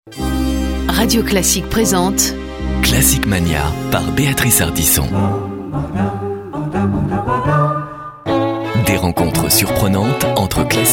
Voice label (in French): elegant, Voix off disque; CLASSIC MANIA